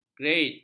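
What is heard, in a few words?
"gred", Malay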